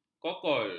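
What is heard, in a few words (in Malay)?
"kokol", Malay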